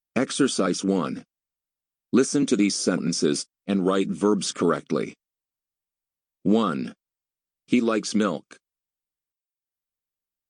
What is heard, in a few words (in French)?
anglais he likes